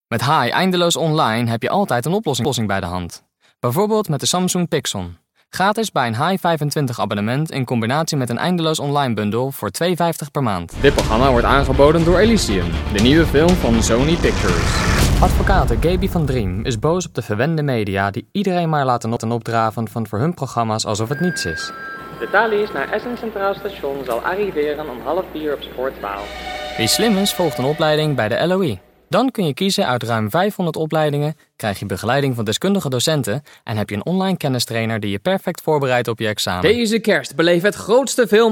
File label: Dutch